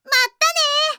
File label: logoff, Japan Anime Girls; Windows; Bing; Nana